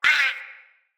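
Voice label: Pain Corrupted Sprouts, en; Dialog; Battle Barks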